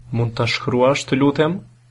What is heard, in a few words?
write, albanian